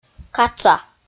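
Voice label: "катша", Komi, female; kpv audio dict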